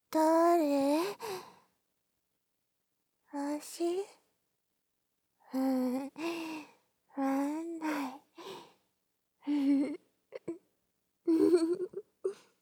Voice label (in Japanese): 狂って幼稚化; 効果音なし